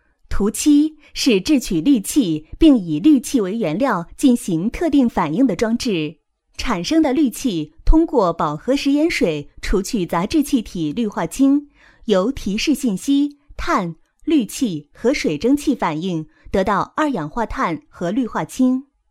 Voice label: mandarin female

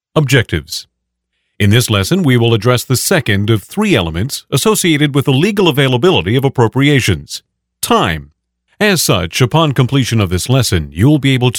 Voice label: E Learning Narration; Affordable Non Union Male Voice Talent; Professional Male Voice Over Talent

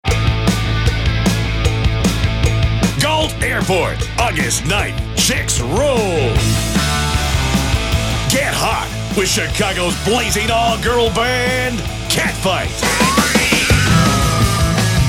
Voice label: male voiceover, commercials; Professional Male Voice Over Talent

